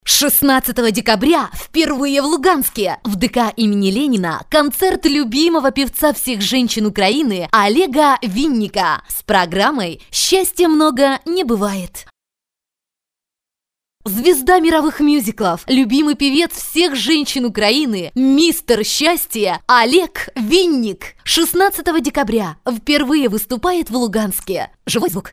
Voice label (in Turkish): Ukraynaca Kadin, ukraynaca seslendirme; kadin sesler